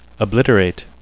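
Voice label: "obliterate", English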